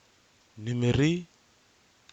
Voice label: "nɨmɨri", Kwamera

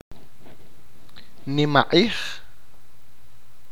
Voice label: fair, achi

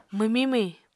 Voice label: "mwmiñ-mwhiñ", Apatani

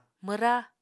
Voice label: "mwra", Apatani

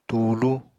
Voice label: "tuulu", apatani

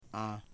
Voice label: "house", Foe

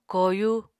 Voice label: "koyu", apatani

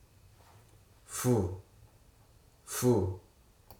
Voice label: "Gayal mithun", Hruso

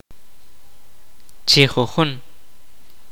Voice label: "one by one", kaqchikel

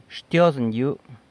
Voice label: "Xtyozën yëbu", San Lucas Quiavini; Thank you (formal)

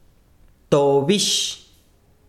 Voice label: besiro noche, chiquitano

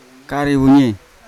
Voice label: "karewunyai", Yawa; hair; unat

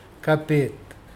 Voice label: belly, Mokilese

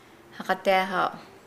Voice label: "play", Nukuoro

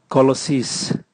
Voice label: toilet, Raga

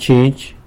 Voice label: "chinzh", tlacolula; bedbug

